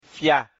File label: "fya", mina